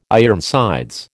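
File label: "Ironsides", American English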